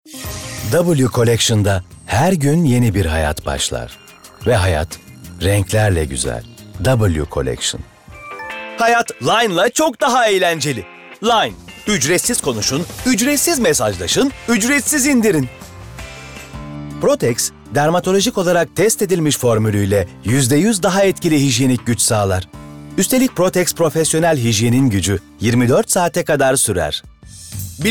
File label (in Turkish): REKLAM, tr